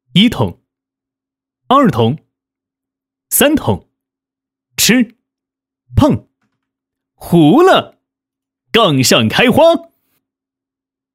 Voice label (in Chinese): 棋牌角色 普通话 干音, 男